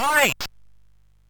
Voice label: MOS6581R2, Electronic Speech Systems; Slapshot